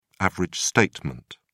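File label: "average statement", English, British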